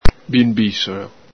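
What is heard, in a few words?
"binbisolo", Veneto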